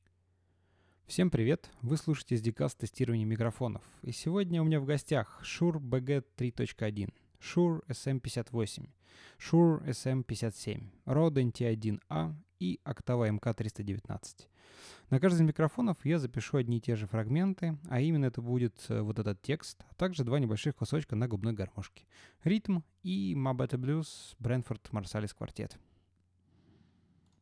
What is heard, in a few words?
Shure SM58 text